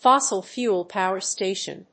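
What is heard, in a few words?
"Fossil fuel power station", English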